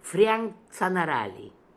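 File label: "Frianktzanarali", pronunciations; Fourth Way; Beelzebub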